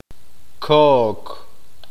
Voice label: "coco", Q'eqchi'